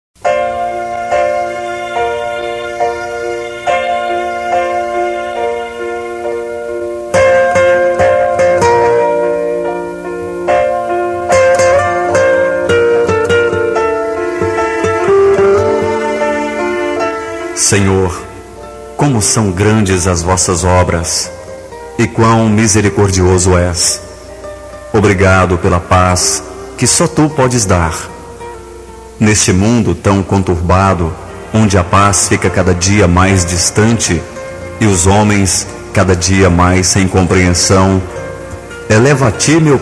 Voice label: RELIGIOSA MASC

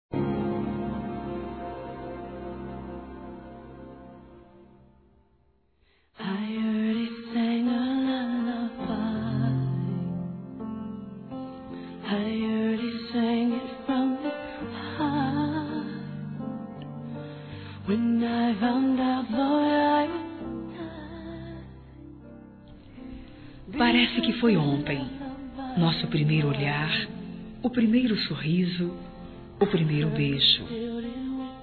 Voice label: ANIVERSÁRIO DE CASAMENTO F, Telemensagens; Aniversários

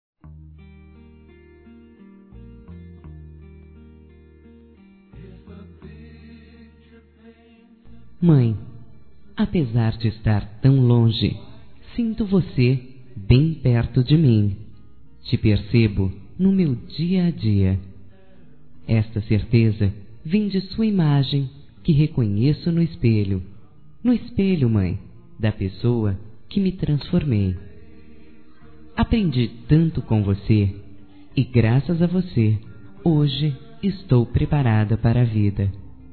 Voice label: MÃE DISTANTE F; Telemensagens 2017

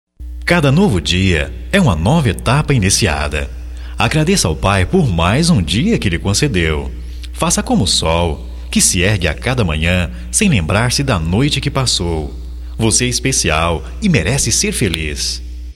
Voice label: OTIMISMO GERAL, Telemensagens, masc

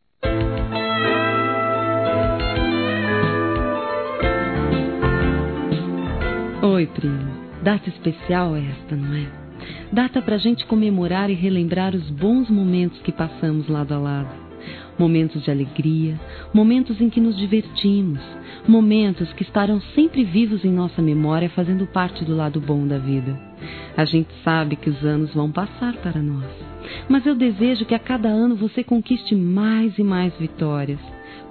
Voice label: Aniversário Primo Feminino; Aniversários; Aniv Familiar

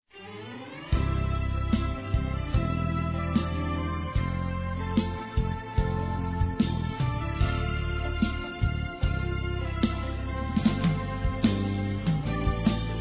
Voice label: Mensagem Para Amigo Masculino

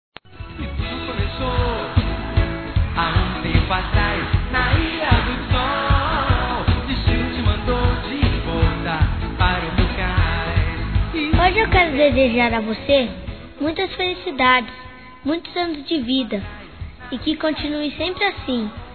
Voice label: Aniversário Geral Infantil Masculino; Telemensagens 2017; Aniversários

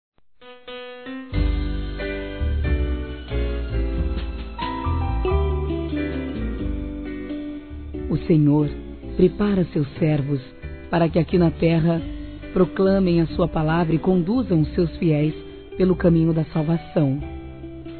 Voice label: Trilha MENSAGEM P PASTOR F, Telemensagens Gospel fem; Telemensagens 2017

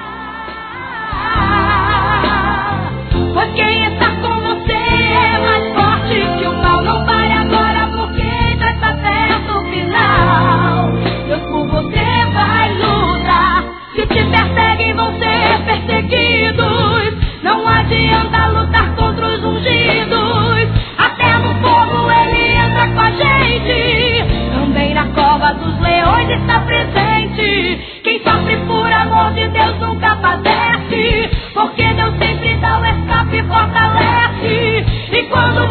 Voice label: NATAL E ANO NOVO PARA IRMÃO, masc; Telemensagens; Misto

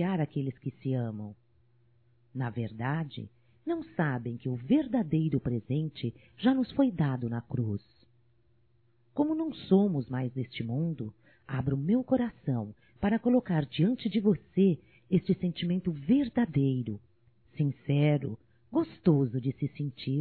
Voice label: NATAL ROMANTICO, Telemensagens, fem

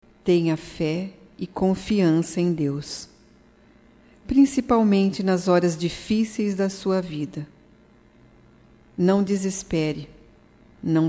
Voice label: OTIMISMO, fem, Voz; Telemensagens 2017

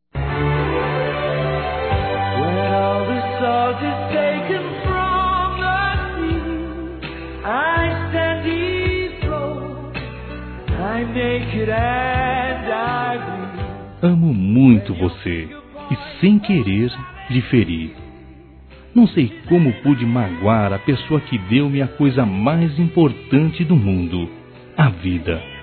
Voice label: Desculpas Mãe, Masc; Telemensagens 2017

